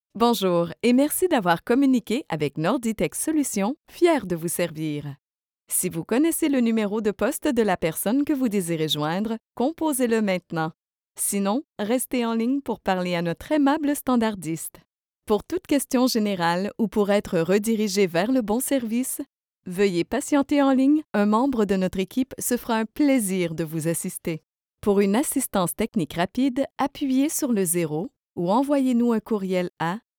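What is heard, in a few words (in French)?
Téléphonie, On-Hold Messages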